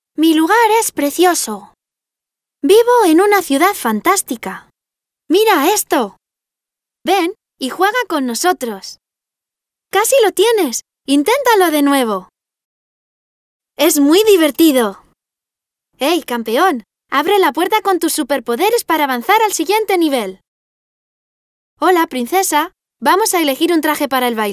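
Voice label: Spanish female children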